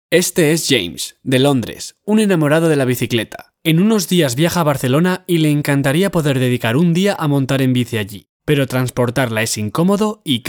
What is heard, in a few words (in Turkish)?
Ispanyolca Erkek